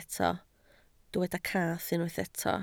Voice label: "cath", Welsh